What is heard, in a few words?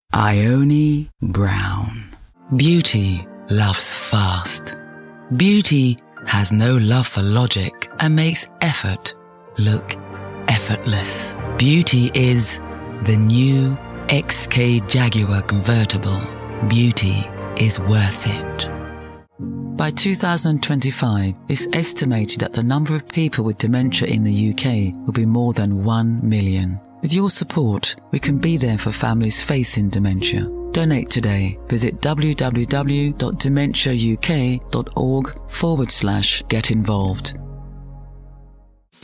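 English UK, commercial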